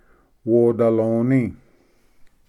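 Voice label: "wodaloni", Cherokee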